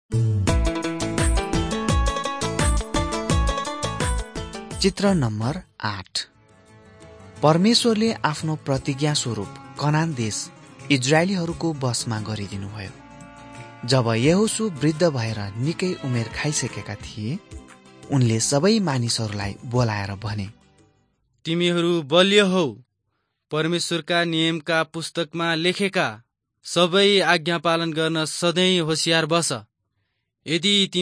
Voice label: victory through god nepali